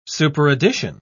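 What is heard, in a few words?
"superaddition", English, uk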